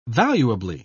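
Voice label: "valuably", British English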